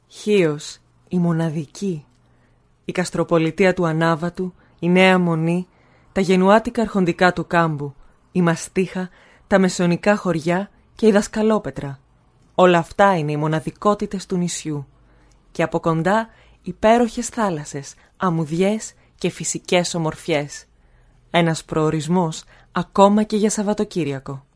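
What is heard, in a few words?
Greek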